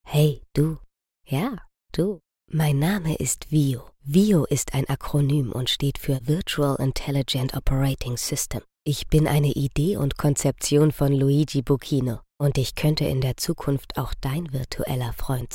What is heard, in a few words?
Trailer